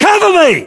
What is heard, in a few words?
UK order action cover me, battlechatter